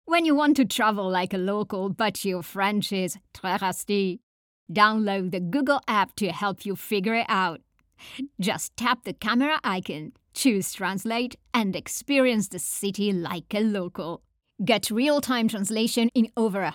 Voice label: italian promos